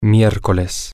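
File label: Wednesday, Spanish, male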